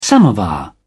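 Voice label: "samovar", British English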